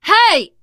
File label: friendly fire, teen girl voice; survivor voice